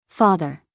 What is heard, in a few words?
"father", us female